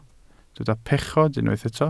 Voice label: "pechod", Welsh